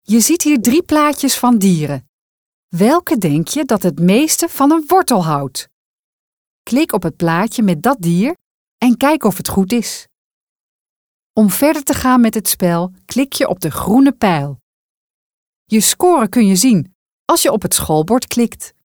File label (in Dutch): uitleg kinderen